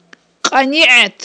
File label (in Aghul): "кьаниIет", aghul